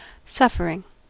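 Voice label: "suffering", US English; pronunciations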